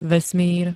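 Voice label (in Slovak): "vesmír", Slovak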